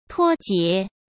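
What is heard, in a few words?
"脱节", Mandarin Chinese; hsk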